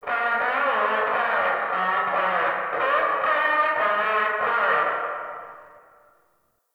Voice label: Distant PA Voice; Warframe; Entrati; Dante Unbound Leverian